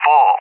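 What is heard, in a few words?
"Boar", English, UK; CallSign; DCS; Allied Flight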